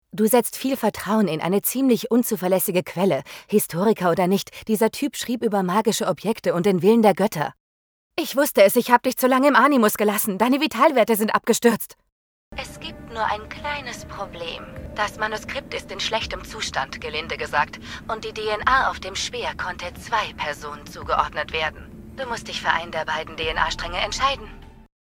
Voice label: german video games